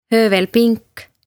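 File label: "Höövelpink" Estonian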